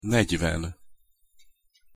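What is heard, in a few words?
"negyven", Hungarian pronunciation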